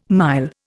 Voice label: "mile", Indian

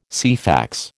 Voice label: "Ceefax", American

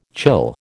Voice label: "chill", American

